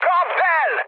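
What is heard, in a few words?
attack position, mood high, Hungarian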